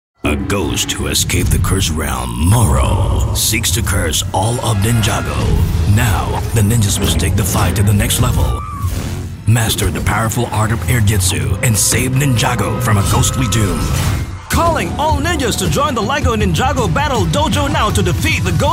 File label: Asian English; LEGO NINJAGO